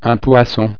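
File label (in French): "poisson", French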